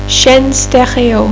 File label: "une chaîne stéréo", French; Furniture